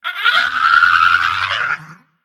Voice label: Female Nerubian Death